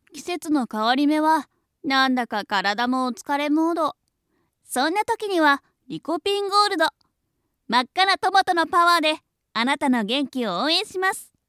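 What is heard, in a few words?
voice sample commercial drink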